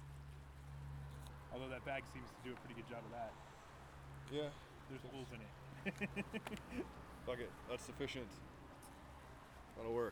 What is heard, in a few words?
dialog; Listen01 Sample Pack